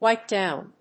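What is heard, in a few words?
"wipe down", English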